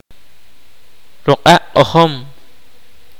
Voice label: kaqchikel; Marimba sticks